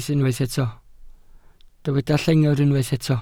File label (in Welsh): "llengar" Welsh